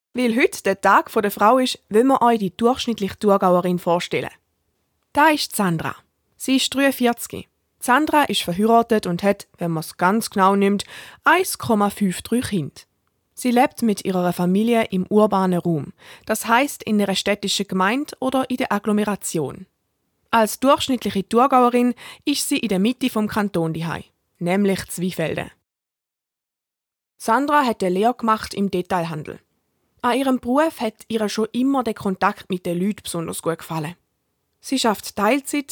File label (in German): Kommentar; durchschnittliche Thurgauerin